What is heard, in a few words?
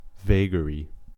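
"vagary", US English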